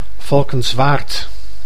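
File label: "Valkenswaard", Dutch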